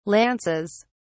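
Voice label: "lances", British English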